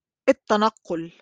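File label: "التنقل", Arabic; movement/transportation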